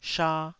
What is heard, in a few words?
"sha2", taiwanese Syllables